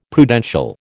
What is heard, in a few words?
"Prudential", American